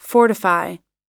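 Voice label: "fortify", US English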